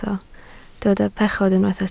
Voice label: "pechod", Welsh